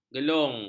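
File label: "gelung", Malay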